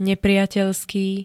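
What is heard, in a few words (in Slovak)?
"nepriateľský", Slovak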